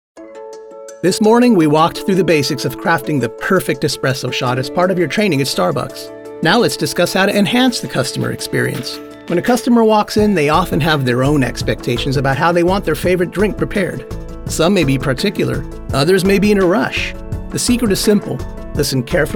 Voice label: E Learning; male voice actor